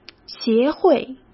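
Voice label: "协会", Mandarin Chinese; hsk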